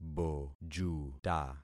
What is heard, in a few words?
"bojuta", concat syllables; Affixing experiment